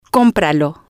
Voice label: "compralo", spanish

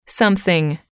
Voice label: "something", English

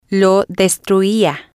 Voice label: "lo Destruia", spanish

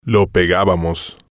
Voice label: "lo pegabamos", spanish

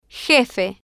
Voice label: "jefe", Spanish

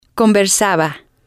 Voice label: "conversaba", spanish

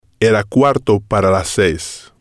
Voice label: "era cuarto para las seis", spanish

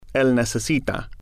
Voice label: "el necesita", Spanish

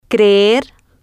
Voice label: "creer", spanish

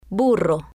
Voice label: "burro", spanish

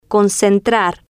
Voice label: "concentrar", spanish

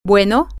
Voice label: hello Mexico, spanish, F

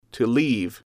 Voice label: "to leave", English

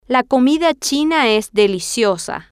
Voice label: la Comida China Es Deliciosa, Spanish

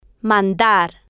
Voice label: "mandar", Spanish

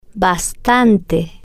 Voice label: "bastante", spanish

